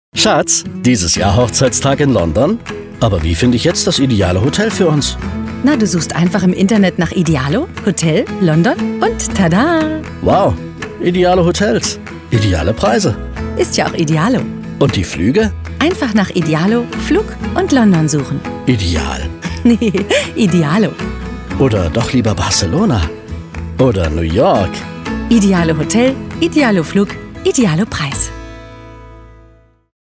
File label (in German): TV Spot; Idealo